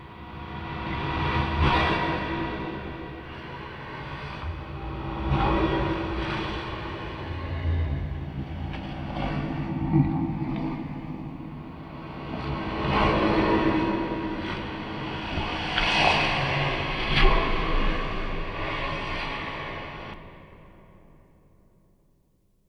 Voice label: Backward Whispers; Inquisitor 3